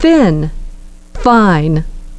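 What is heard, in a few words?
"fin" "fine", English pronunciation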